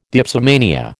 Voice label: "dipsomania", American